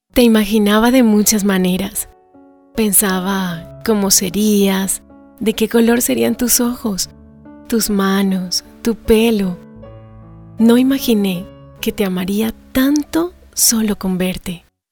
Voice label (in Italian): emotivo